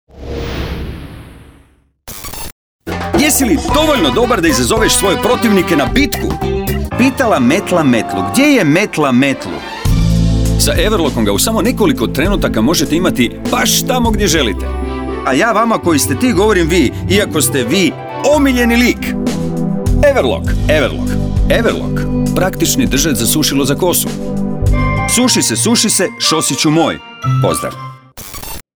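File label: croatian male commercial; voice demos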